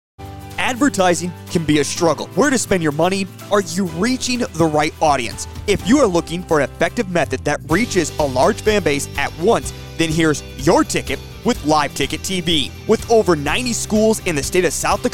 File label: Commercial; Todd Live Ticket